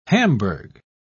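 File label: "hamburg", British English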